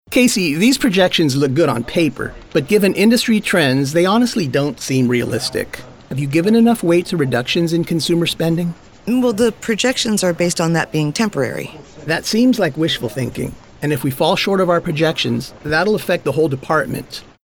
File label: eLearning interactive; male voice actor